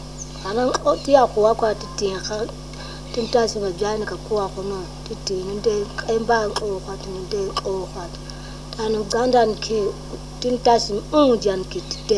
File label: Khoisan